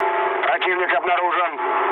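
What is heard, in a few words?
Russian enemy detected mood low, speech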